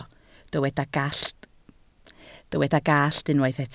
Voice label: "gallt", Welsh